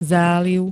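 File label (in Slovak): "záliv", Slovak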